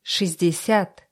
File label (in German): russische zahl 20; Zahlen auf Russisch